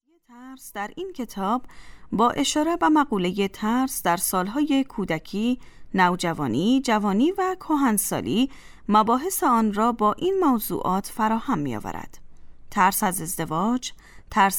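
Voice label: Dari Afghanistan female narrative; dari voice over talent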